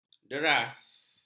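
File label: "deras", Malay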